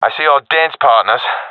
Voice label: sees enemy, professional epic; vo; Source Model Packs; Counter Strike Model Pack; CS2